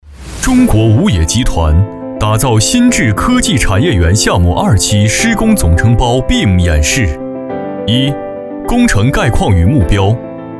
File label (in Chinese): 男, 项目汇报; 芯智汇科技产业园项目